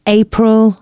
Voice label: female, announcements

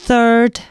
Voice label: announcements female

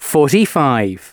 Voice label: announcements male

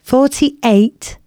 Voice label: announcements female

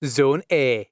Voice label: Zone A, announcements male

